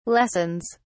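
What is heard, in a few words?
"lessons", British English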